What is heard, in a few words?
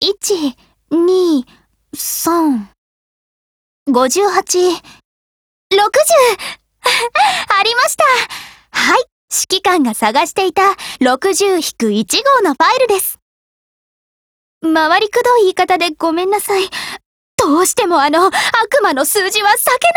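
DIALOGUE, Japanese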